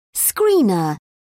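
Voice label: "screener", British English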